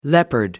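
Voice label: "leopard", English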